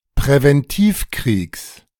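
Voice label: "Präventivkriegs", German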